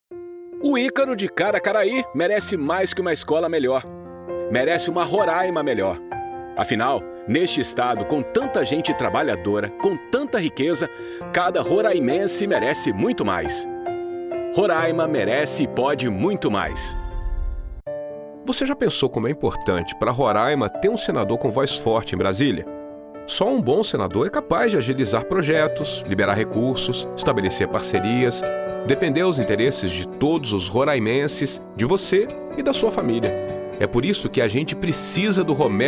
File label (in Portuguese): Portuguese Brazilian political ads